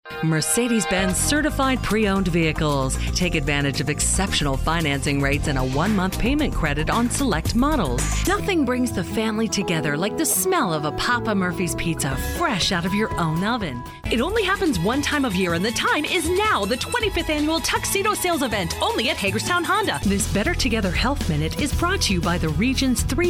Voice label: Commercial Female; commercial voiceover